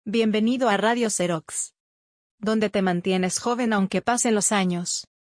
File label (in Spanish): radio, mujer; radio zerox